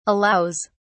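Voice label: "allows", British English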